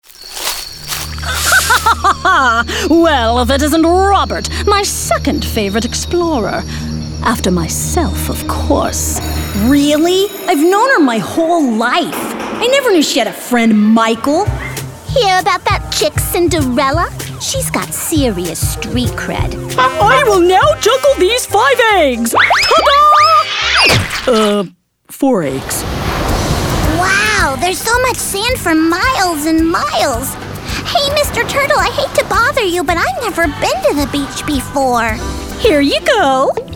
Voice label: Animation